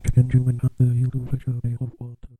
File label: jack and jill fade out